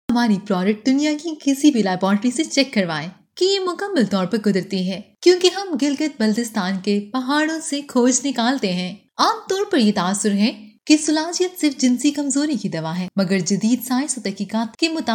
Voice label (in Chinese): 乌尔都语女 外语 小语种